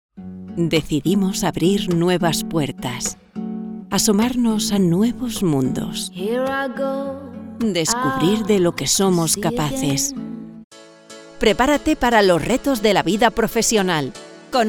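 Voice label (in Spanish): corporativo España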